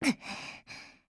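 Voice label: LOW MOOD Japanese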